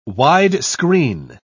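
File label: "wide-screen", British English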